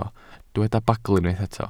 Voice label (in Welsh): "bagl", Welsh